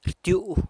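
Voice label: "rtyu'uh", picks (fruit), San Lucas Quiaviní Zapotec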